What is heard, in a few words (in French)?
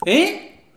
hein etonnement